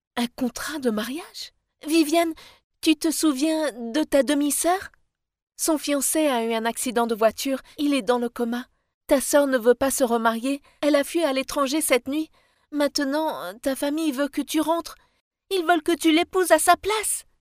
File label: locutora francesa doblaje french dubbing